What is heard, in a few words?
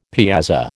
"piazza", American